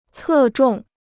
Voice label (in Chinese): "侧重", Chinese